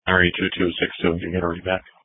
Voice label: Police Dispatch